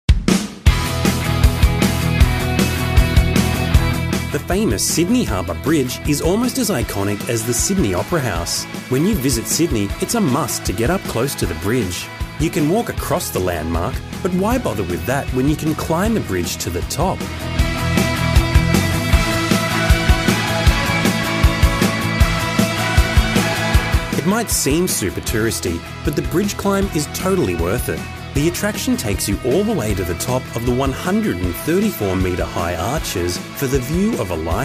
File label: Narration